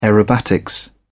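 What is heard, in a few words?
"aerobatics", British English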